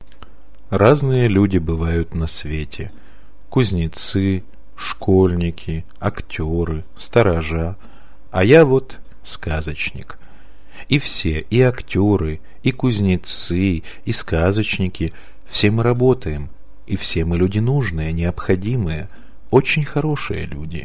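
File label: Russian, male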